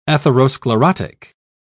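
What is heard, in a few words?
"atherosclerotic", English, uk British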